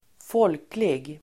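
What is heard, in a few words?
"folklig", Swedish; Uttal: [²f'ål:klig]; popular, national; som kännetecknar folket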